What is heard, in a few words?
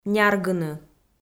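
"няргыны", Komi, female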